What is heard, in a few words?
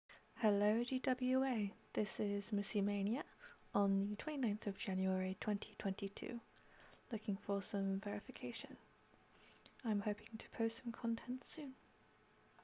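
Verification, Female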